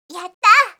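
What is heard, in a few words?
"yattaa", Japanese, yorokobu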